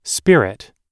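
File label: "spirit", English; oxford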